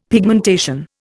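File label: "pigmentation", Indian English; Dictionary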